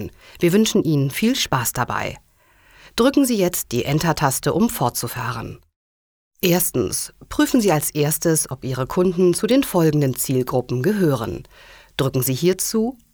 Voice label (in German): zwoelf e learning; sprecher